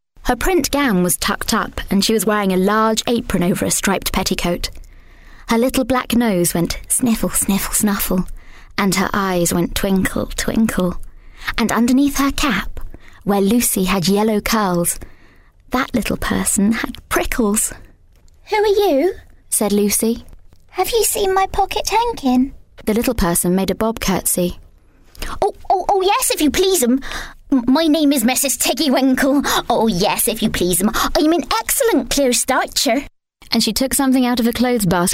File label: Story Character; Mrs Tiggywinkle